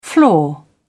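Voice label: "flaw", British English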